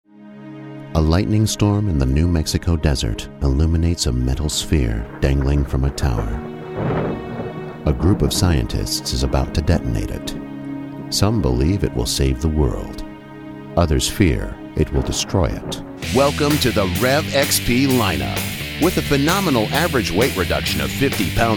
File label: Narration